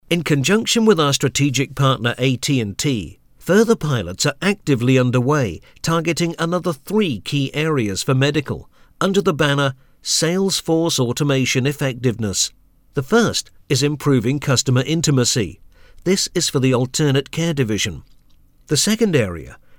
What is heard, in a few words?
Narrative